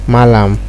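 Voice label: "mallam", Nigerian Pidgin, male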